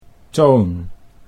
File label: "Toon" Dutch